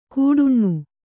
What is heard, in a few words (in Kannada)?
"ಕೂಡುಣ್ಣು", kannada